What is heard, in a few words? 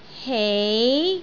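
"hei2", Cantonese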